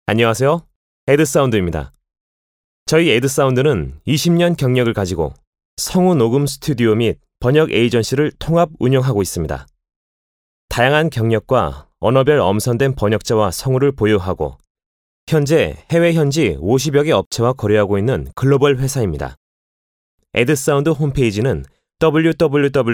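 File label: Korean male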